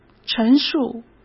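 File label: "陈述", Mandarin Chinese; chinese flashcards; hsk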